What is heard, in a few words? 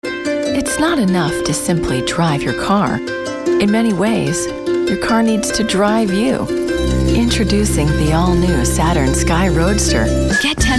commercial